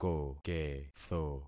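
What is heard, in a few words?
"koketho", concatenated syllables; Affixing experiment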